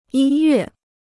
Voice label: "音乐", Chinese; yīn yuè; music

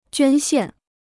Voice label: "捐献", Chinese

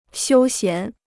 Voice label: "休闲", Chinese

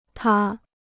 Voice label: "塌", Chinese

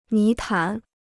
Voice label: "泥潭", Chinese

